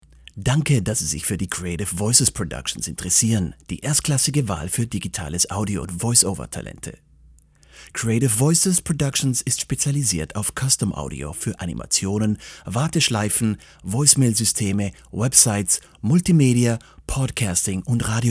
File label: German male